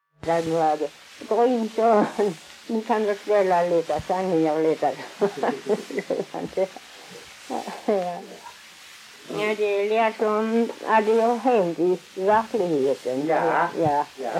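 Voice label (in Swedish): Allerum Skåne, dialekter; Folkminnen; Svenska sagor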